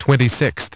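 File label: "twenty-sixth", English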